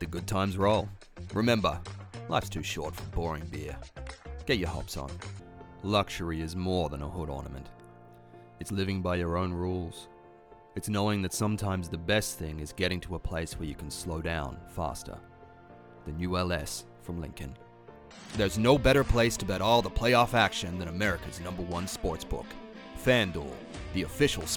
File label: Commercial Voice; Reel